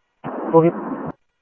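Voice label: Mesa Police Department, scanner audio; Mesa Simulcast; MESA PD 1 Fiesta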